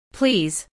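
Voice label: "please", Canadian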